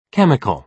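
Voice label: "chemical" British English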